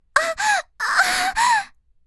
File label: h voice; おっぱい図鑑